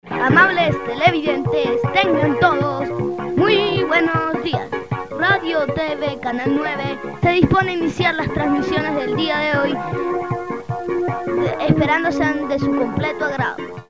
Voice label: Child voice